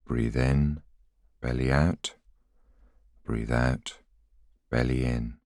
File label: voiceover